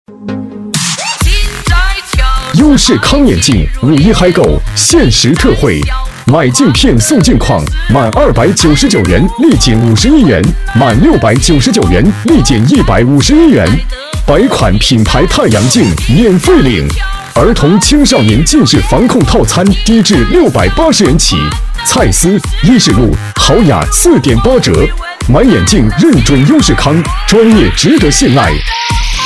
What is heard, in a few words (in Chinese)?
激情促销男; 买眼镜认准优视康